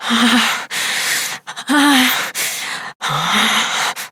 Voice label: Operator Voice; Warframe; Operator Mission; Generic Cold Shiver